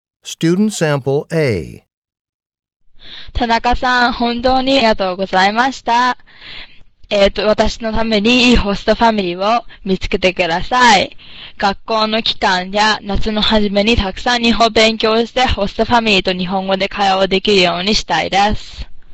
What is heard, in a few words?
japanese conversation